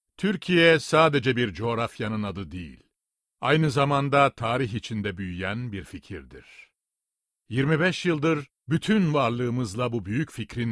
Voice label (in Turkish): erkek sesler, turkce seslendirme